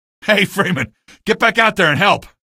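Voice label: citizen deny train, male; vo; npc; source engine; episodic; episode 1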